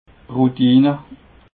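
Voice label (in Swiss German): "routine", Haut Rhin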